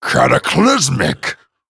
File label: Male Dragon WOW